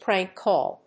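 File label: "prank call", English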